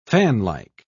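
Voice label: "fanlike", British English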